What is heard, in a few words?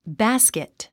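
"basket", English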